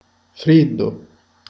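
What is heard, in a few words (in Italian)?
"freddo", Italian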